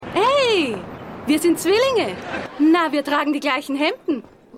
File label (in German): Zwillinge Frau, Stimmproben; Seinfeld